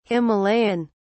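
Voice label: "himalayan", British English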